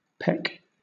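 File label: "peck" English, British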